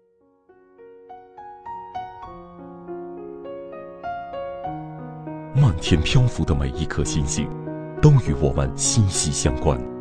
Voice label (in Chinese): 男 广告 深情优雅